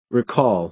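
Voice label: "recall", American English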